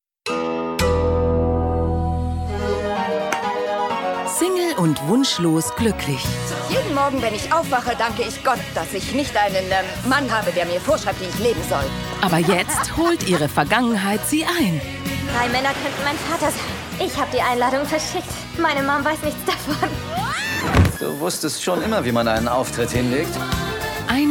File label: Trailer; MammaMia